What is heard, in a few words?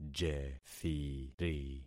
"jethiri", concat syllables; Affixing experiment